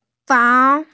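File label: "fang2", Mandarin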